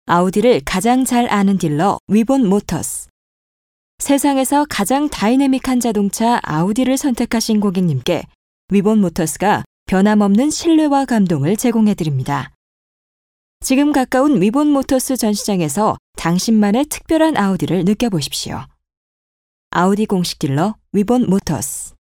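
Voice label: Korean, female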